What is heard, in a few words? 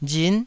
"rin9", Taiwanese